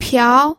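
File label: "piao", Mandarin Chinese, second tone; pinyin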